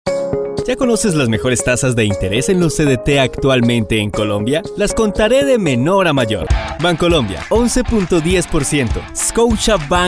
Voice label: spanish latin american promos, latin american spanish voice actors